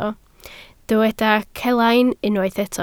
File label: "celain", Welsh